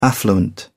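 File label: "affluent", English, uk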